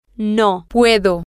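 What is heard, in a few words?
I am able to can no, Spanish, female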